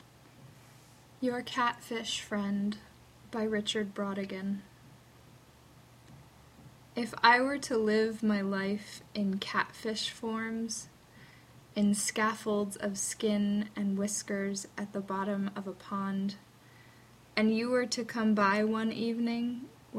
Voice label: Poem